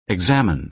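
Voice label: "examine", American English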